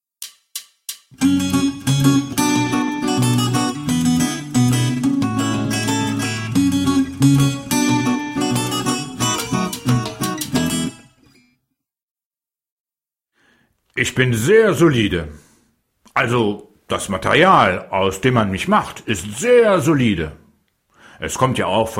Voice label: monologue; raetsel